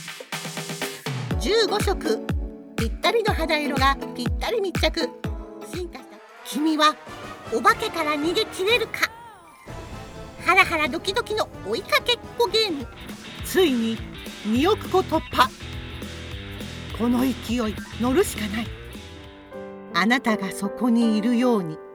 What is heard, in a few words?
Japanese Female Commercials